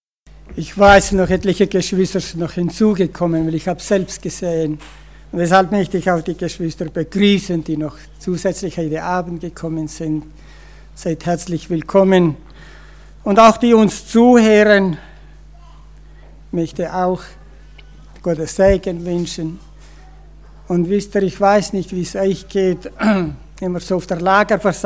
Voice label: Ansage; Zenas und Apollos

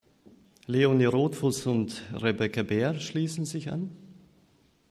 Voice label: Ansage

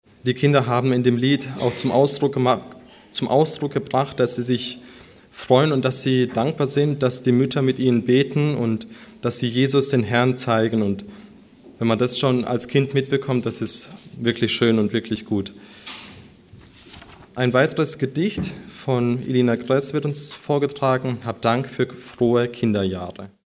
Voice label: Ansage

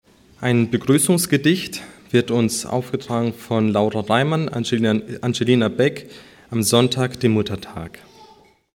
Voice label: Ansage

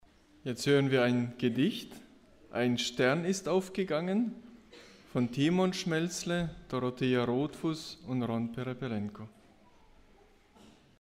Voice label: Ansage Gedicht

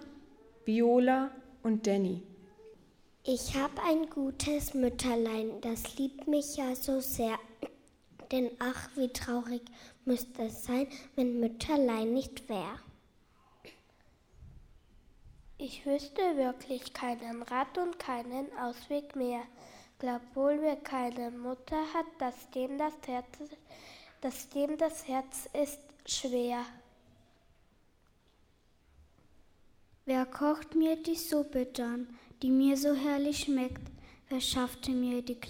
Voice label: 6-9 jaehrige Gruppe Gedicht

